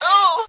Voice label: "ooh" female